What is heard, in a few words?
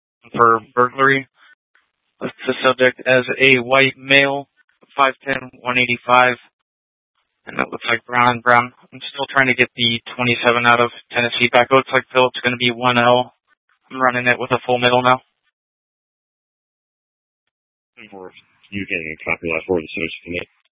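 Tempe police department, scanner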